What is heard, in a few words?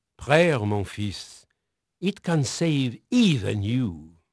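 French male, voice; Pirates Of The Caribbean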